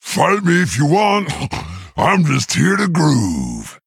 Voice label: Male Quilboar; Zok; Mission2 Exchange A

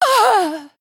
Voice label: Female Night Elf Death

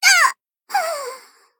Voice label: Female Sprite Death

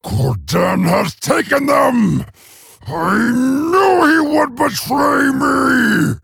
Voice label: Male Orc; Orgrim

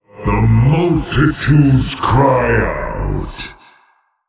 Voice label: Male Old God; WOW

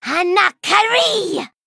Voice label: Female Gnome; Rin

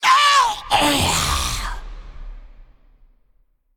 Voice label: Female Old God Creature Death

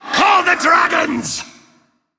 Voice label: Male Forsaken Attack

